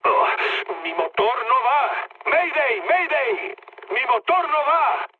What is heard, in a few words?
damaged engine, mood high, speech; War Thunder